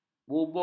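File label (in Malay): "bubul", Malay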